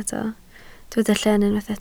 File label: "llen", Welsh